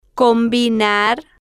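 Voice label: "combinar", Spanish, female, slow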